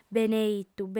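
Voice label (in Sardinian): "beneitu", female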